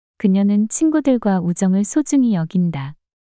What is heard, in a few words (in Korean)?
그녀는 친구들과 우정을 소중히 여긴다 문장 여자